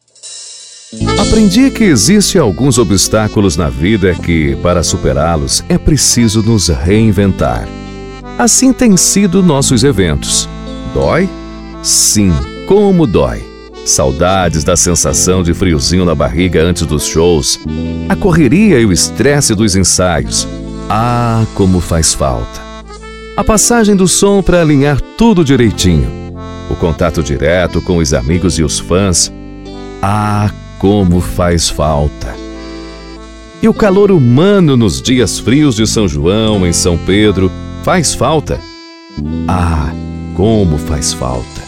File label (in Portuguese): Spot institucional